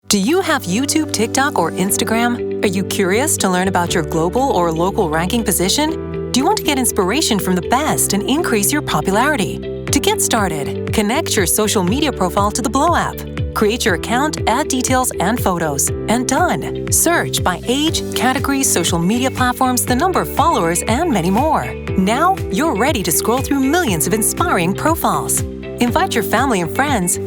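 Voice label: British English, female, corporate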